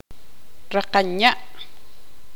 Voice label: tzutujil